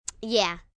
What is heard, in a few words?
"Yeah", Response, 1 girl, 9 yrs.; Talking Sound Effects; Sound Effects:Voice; VoiceBox